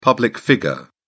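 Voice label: "public figure", British English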